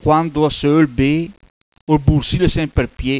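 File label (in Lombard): Quando a se öI bé, ol bursì lè semper pié., bergamasco; PROVERBI BERGAMASCHI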